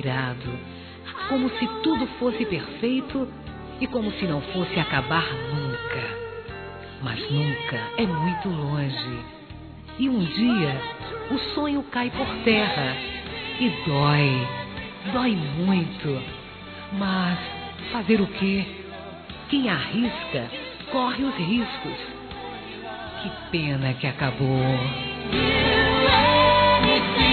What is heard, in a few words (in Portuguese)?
Para Ex Feminino, Românticas; Telemensagens